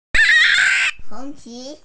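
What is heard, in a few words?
"tou2", Mandarin Chinese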